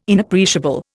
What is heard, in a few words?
"Inappreciable", Indian